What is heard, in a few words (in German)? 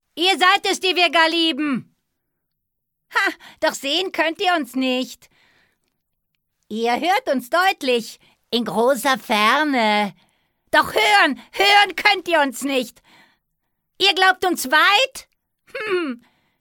Gedicht psycho, frauen; sprecher